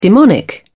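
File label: "demonic", British English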